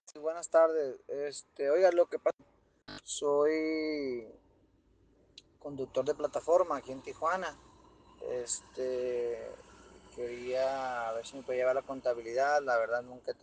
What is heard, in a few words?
whatsapp ptt